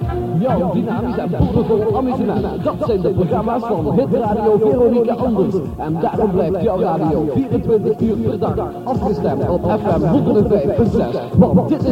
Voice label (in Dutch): Jingle jong, dynamisch en boordevol amusement; Station Calls